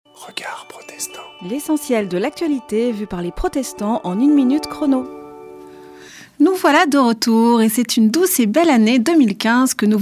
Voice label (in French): Spot radio; Regards protestants